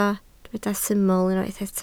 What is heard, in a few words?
"syml", Welsh